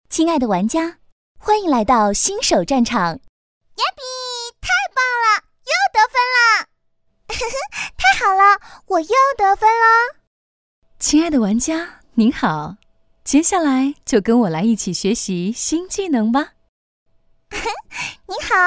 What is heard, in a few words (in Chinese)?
游戏角色配音